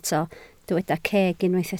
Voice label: "ceg", Welsh